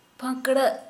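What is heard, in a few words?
"feather", Santali, female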